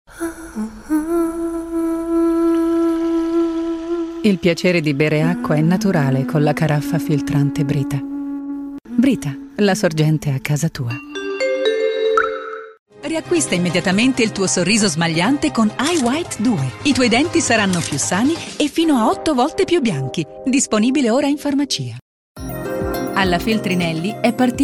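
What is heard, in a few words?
Italian, female, commercial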